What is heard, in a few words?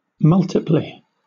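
"multiply", British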